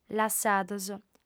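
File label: "lassados", female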